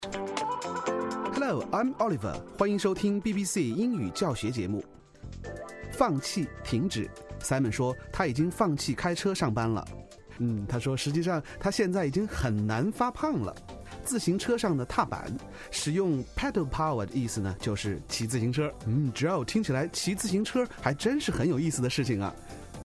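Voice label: Chinese Mandarin Male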